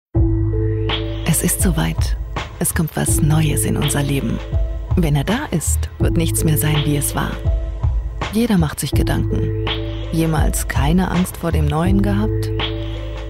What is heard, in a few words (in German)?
sanft feminin gedankenstimme